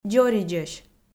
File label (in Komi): "дзоридзӧсь", Komi